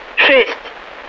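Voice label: Russian speech, Mi-8MT RI65; DCS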